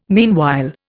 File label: "meanwhile", Indian